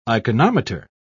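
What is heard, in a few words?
"iconometer", British English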